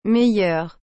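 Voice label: "meilleure", French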